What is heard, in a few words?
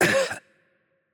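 Spanish hurt light voice; bloom minigame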